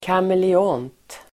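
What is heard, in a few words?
"kameleont", Swedish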